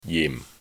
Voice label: "jim4", cantonese